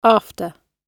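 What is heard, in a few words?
"after", British English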